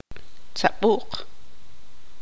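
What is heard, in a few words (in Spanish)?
"viejo", Tz'utujil, female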